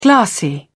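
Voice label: "glassy", British English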